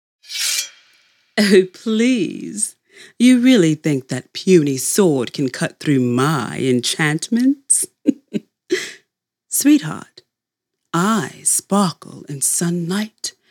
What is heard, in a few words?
Sassy Villain, voice-over